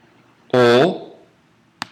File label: latin o